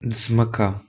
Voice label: "dzmakka", Pashto